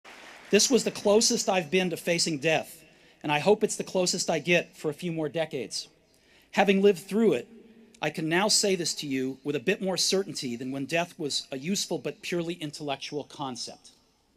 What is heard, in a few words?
Commencement Address; Steve Jobs; 2005; Stanford